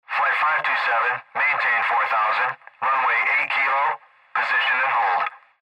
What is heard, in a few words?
Airtraffic Control Maintain